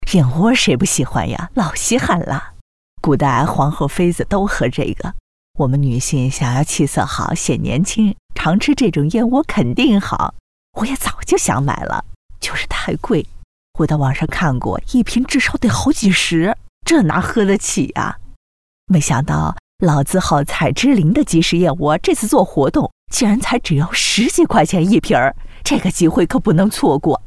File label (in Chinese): 女 角色 中老年